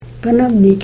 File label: "panamniik", karuk